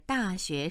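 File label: "da xue", Chinese, tones 4 and 2, female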